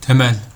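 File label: "temel", Turkish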